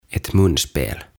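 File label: "Ett munspel", "Huuliharppu", Swedish, Finnish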